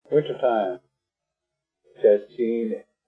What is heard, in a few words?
"cecííni'", Arapaho; cecííni' (also means "year"); winter; Arapaho Language Project; RMNP; Weather Vocabulary related to Winter